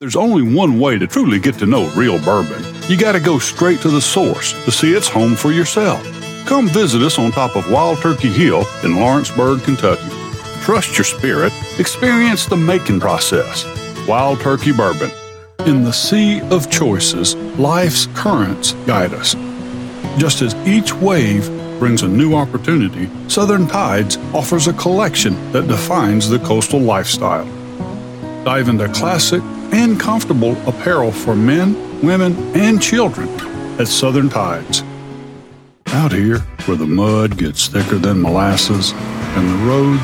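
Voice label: English US, commercial; GoLocalise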